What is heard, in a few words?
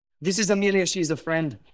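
Voice Acting es M, Spanish, male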